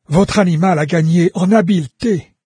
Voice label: pet level up, NARRATOR, fr; Fate